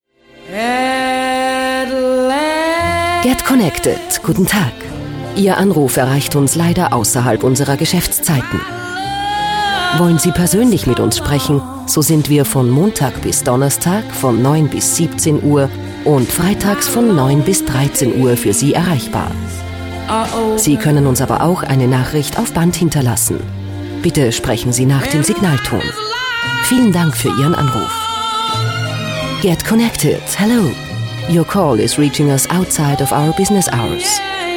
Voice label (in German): Sprecher Nacht